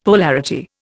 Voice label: "polarity", Indian; Dictionary